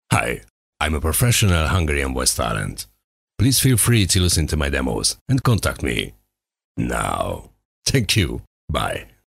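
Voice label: Hungarian English, male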